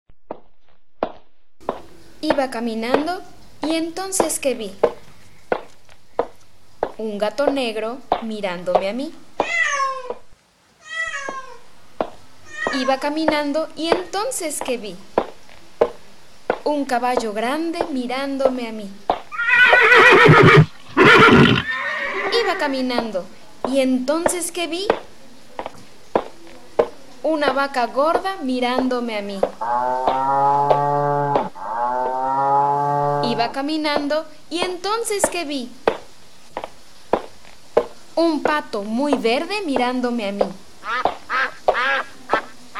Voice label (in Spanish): audiolibro